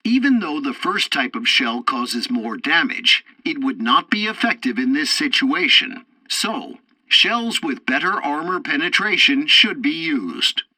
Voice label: tutorial tank arcade fourth target ammo, english; War Thunder

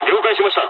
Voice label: dialogs japanese; War Thunder

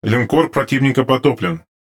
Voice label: RU Speech Destroy Enemy Battleship; World Of Warships; USSR Ovechkin

